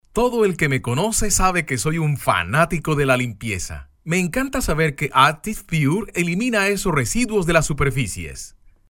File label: with FX Audio